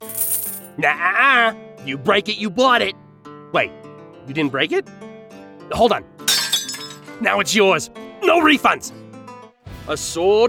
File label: Character VO